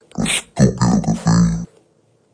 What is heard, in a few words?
vox fx; sweeps and fx; Ze Franks Pain Pack